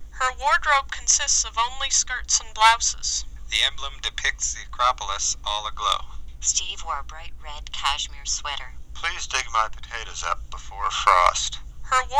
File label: Mic Speech; Microphone Signal